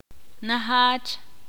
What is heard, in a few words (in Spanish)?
cerca, ixil